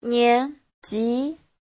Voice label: "nian2ji2", chinese